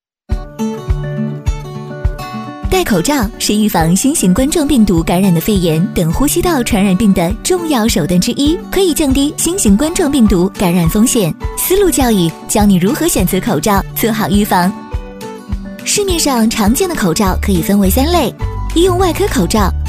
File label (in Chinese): 女-MG动画【新冠病毒科普类-年轻活泼】; MG飞碟说配音